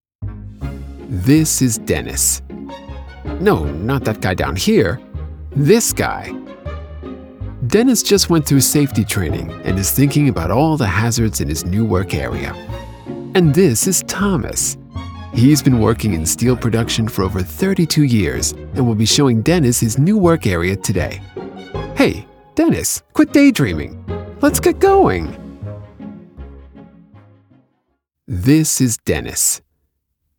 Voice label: Narrator, Comic

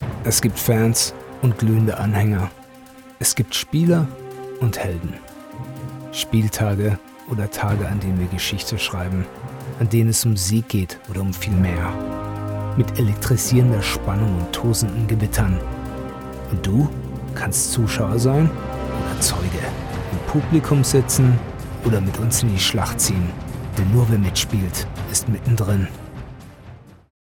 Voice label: Video Game Ad - Moody Aggressive

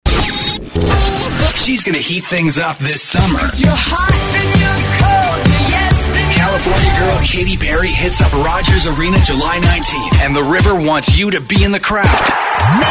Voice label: Radio Imaging

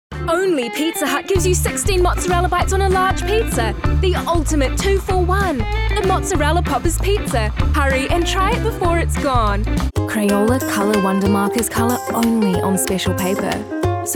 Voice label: NZ

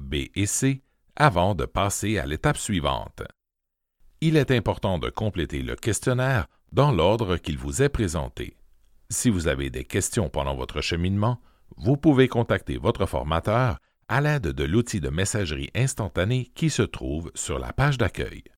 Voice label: e-learning formation en ligne

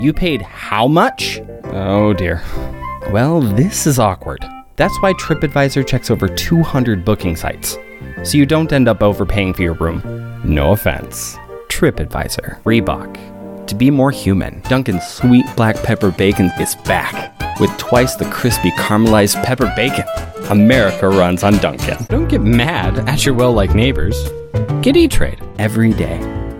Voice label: Commercial Voiceover

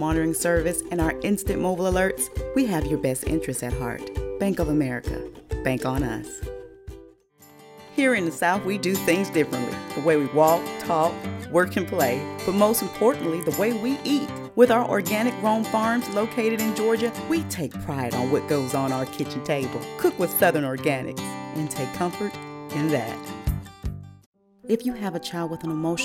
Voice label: VO - Commercial

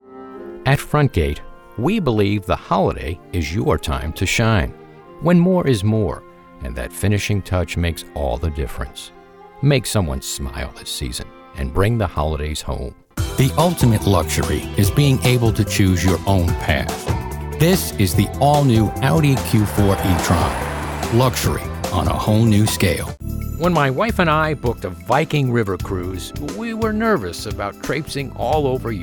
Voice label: Commercial

